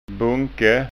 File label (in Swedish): "bunke", skanska ord